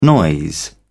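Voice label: "noise", British English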